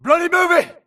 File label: grunt grenade response; imc; Titanfall 2